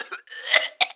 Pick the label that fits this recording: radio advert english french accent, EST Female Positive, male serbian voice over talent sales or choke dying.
choke dying